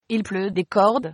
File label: rain, fr